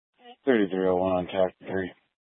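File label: Incident Tactical 3, Scanner audio recording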